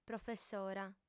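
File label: "professora", Sardinian, female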